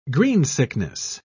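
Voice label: "greensickness", British English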